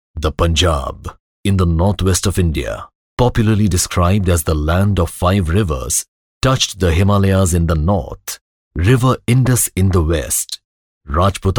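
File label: English Indian Male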